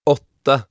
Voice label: "åtta", Norwegian